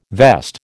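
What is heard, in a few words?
"vest", American English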